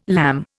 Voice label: "lamb", Indian